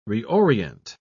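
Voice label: "reorient", English, British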